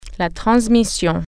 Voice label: "manual transmission", French

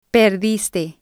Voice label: "perdiste", spanish

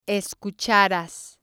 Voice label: "escucharas", Spanish

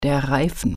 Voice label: "tire", German

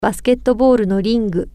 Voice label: basketball hoop, japanese

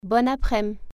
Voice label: good afternoon slang, french

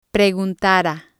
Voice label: "preguntara", spanish

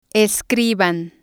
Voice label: "escriban", spanish

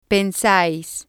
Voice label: "pensais", spanish

